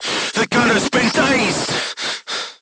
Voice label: voice message gunlayer contused, crew tanks portuguese